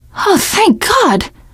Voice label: hurrah, teen girl, survivor voice